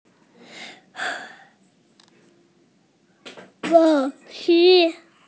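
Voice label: "lao3 shi1", Mandarin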